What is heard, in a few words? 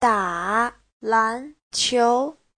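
"dǎ lánqiú", Mandarin Chinese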